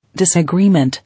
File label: "Disagreement", English